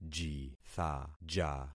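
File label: "jithaja", concatenated syllables; Affixing experiment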